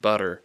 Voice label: "butter", English

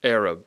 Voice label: "Arab", English

